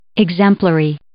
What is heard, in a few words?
"exemplary", English